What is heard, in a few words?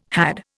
"had", Indian